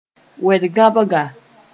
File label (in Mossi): "wed-gãbga", moore